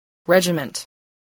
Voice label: "regiment", spelling voice